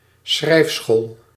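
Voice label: "schrijfschool", Dutch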